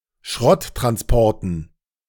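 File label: "Schrotttransporten", German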